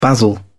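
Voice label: "basil", British English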